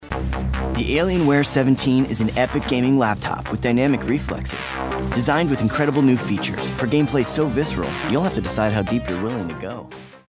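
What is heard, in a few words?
English US, male, commercial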